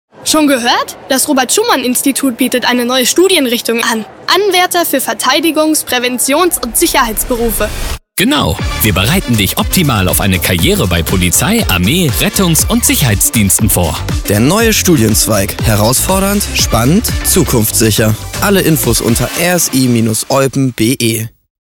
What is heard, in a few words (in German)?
Funkspot VO, RSI Eupen neue Abteilung